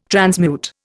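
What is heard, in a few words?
"transmute", Indian English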